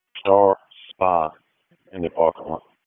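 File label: Police Dispatch, p25; Raleigh; Southwest District; wake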